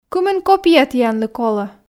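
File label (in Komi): кымын копия тіянлы колӧ, Komi, female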